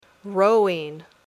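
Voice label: "Rowing", English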